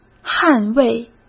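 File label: "捍卫", Mandarin Chinese; chinese-flashcards; hsk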